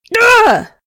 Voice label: Female Constable combat sound; SyrinScape; Missing Magistrate